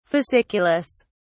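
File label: "fasciculus", British English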